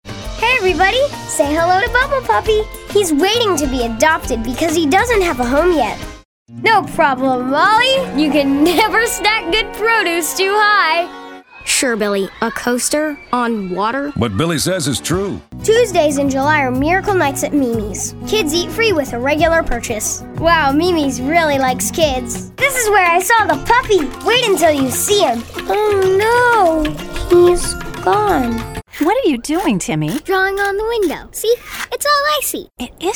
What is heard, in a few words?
Commercial Kid